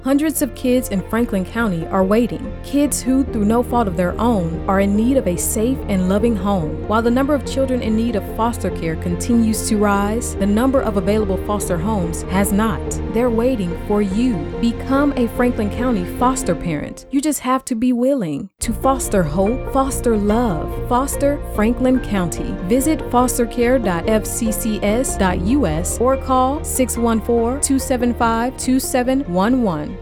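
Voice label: Radio Female Voice; Foster Franklin County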